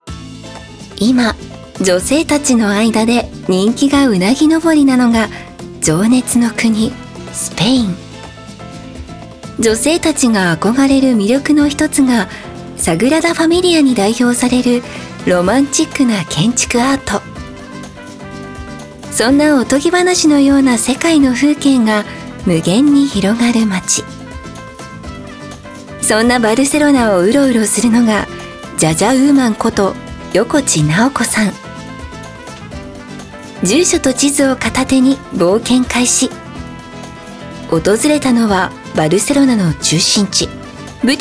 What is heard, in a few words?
narration